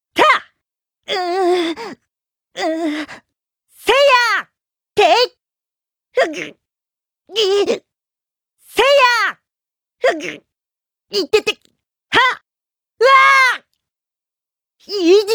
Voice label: male voice; natsuki hanae; db xenoverse